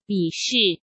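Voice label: "鄙视", Mandarin Chinese; chinese flashcards; hsk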